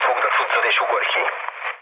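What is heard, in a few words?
Hungarian bailout mood low, speech; War Thunder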